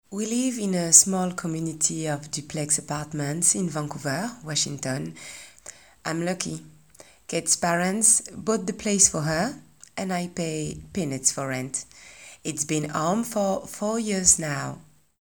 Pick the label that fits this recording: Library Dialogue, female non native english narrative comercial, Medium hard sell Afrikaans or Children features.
female non native english narrative comercial